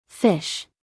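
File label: "fish", American English female; everyone-can-use-english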